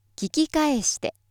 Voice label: female